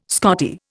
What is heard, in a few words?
"Scottie", Indian